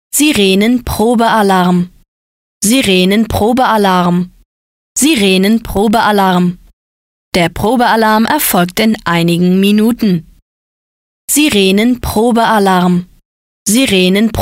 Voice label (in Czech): zkouska siren pred zkouskou, de